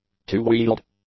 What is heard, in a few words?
"two-wheeled", English; adjectives